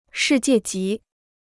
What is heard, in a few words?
"世界级", Chinese